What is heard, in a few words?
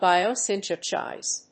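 "biosynthesize", English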